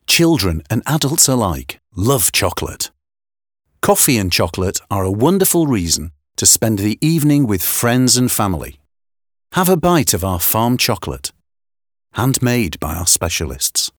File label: ENG male Sweet